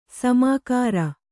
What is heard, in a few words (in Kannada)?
"ಸಮಗಾರ", Kannada